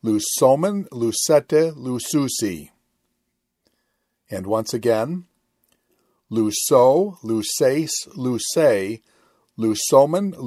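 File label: Quick Chant Future Active Indicative of Luw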